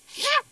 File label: Voice Acting, es